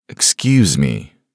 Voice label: man "excuse me"; ringtone; voice ringtones

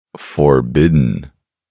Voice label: man forbidden; voice-ringtones

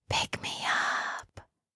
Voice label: asmr girl pick me up; ringtone; asmr ringtones

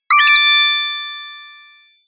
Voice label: hell yeah, message tones

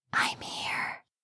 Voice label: asmr girl i'm here; asmr ringtones

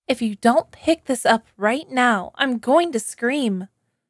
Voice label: if you dont pick, ringtone; funny

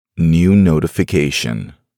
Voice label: man new notification; ringtone; voice ringtones

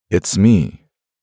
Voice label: man its me; voice-ringtones

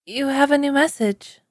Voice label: you have a new message, voice ringtones

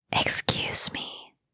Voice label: asmr girl excuse me; ringtone; asmr ringtones

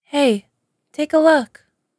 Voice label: hey take a look, girl; voice-ringtones

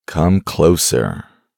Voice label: man come closer, deep male; voice ringtones

